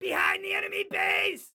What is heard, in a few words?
Enemy Behind Enemy Base, Excited Guy